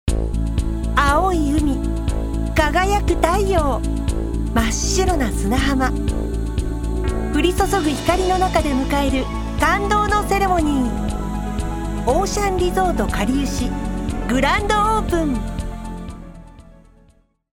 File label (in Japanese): リゾートホテル CM